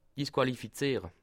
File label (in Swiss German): "disqualifiziere", alsace